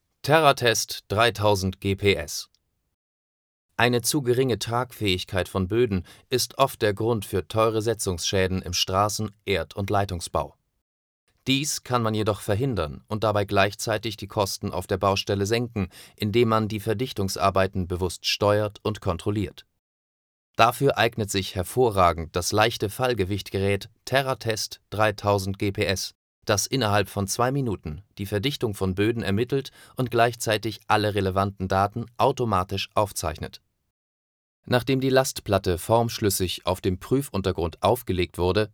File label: german technical